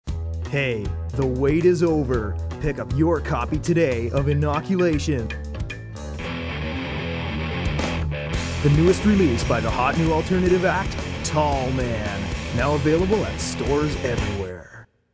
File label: New Album, Commercial, new album release, male announcer overtop of alternative rock music; Broadcast Sound Effects; advertisement (human voice speaking); VoiceBox; Twistedtracks